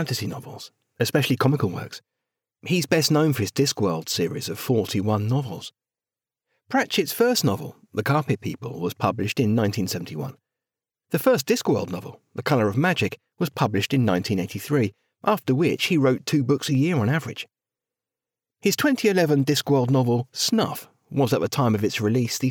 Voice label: narrative

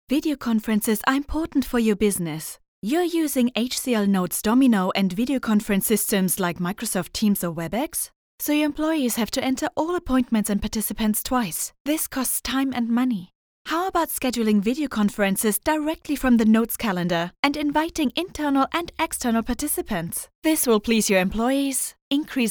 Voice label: Corporate English

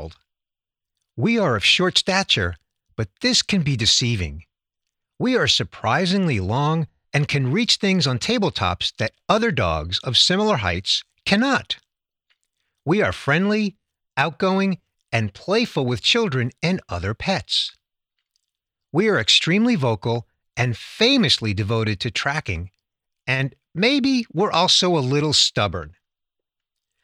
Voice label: Basset Hound explainer

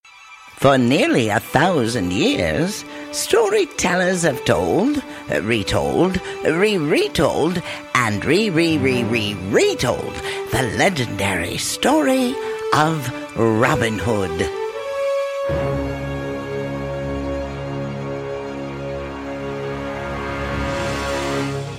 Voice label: Cartoon Voices for Kids

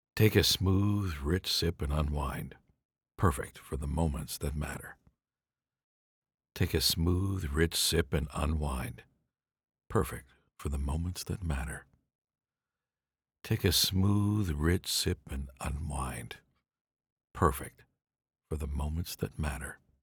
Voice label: Warm Rich

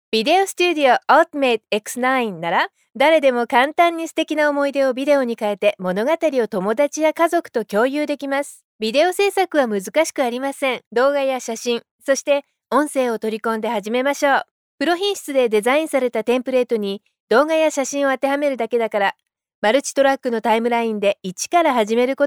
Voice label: Corporate

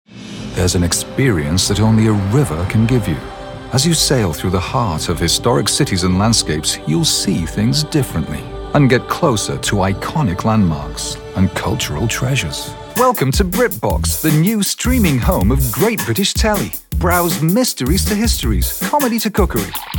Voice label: New Commercial

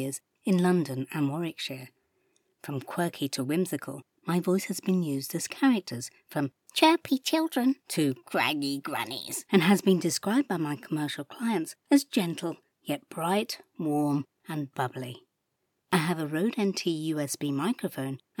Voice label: Studio Sound

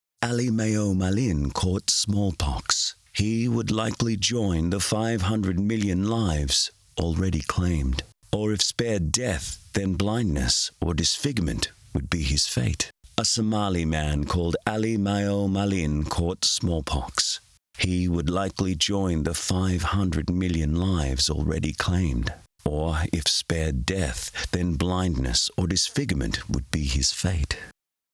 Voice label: Medical Explainer International

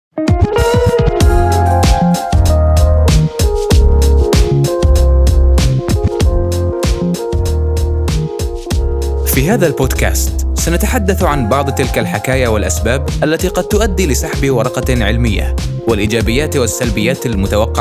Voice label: Arabic Podcast; Paper Retraction

